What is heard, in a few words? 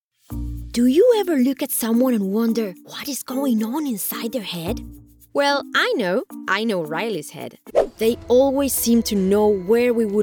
female argentinian english with latam accent compilation